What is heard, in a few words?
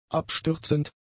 "abstürzend", German; adjectives